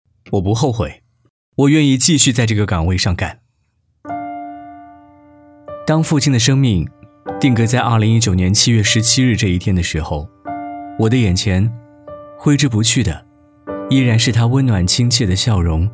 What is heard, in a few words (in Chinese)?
男 旁白独白 工作表彰 我不后悔